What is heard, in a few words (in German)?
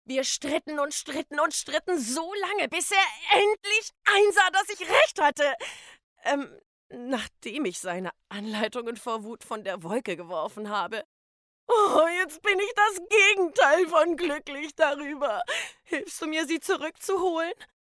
Bipolares Einhorn hysterisch; LOFT VOICES